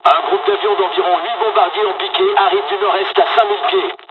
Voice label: dialog, dialogs french; War Thunder